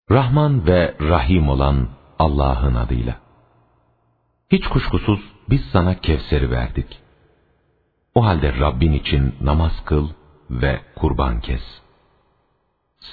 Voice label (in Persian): ترجمه گویای ترکی استانبولی; سوره کوثر